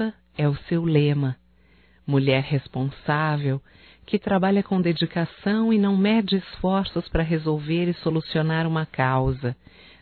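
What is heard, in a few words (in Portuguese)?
Homenagem a advogada, voz feminina; Telemensagens; HOMENAGENS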